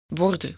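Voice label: "worden", Dutch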